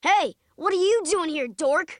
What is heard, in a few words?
kids voice dork